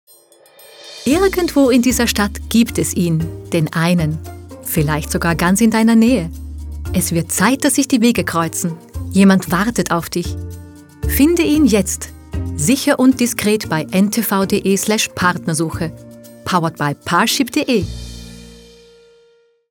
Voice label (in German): WERBUNG; Paarship